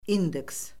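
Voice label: "indeks", Azerbaijani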